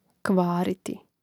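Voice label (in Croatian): "kvariti" Croatian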